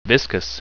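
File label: "viscous", English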